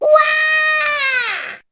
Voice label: JP Voice; Bomberman Hero